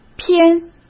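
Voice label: "篇", Mandarin Chinese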